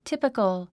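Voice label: "typical", English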